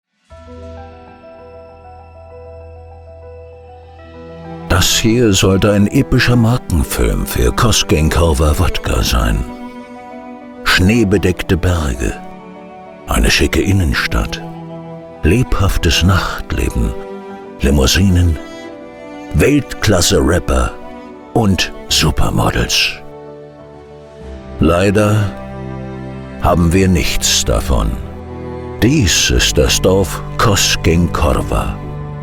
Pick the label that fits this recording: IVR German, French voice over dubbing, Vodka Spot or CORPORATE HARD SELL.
Vodka Spot